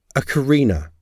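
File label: "ocarina", English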